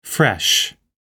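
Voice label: "fresh", English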